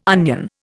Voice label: "onion", Indian